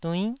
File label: "duinn9", taiwanese syllables